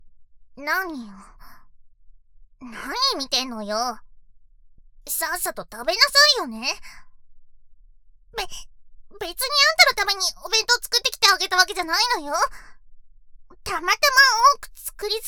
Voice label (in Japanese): tundere